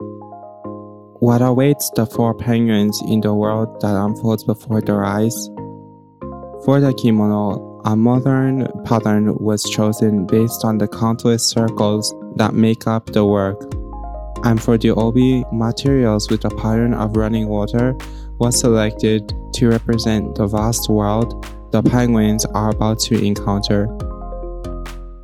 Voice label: English